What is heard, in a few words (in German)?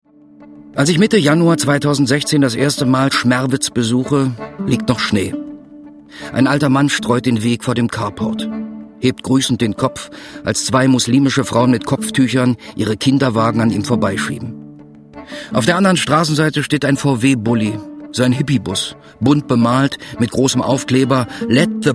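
Kommentar Dynamisch; Fremdtake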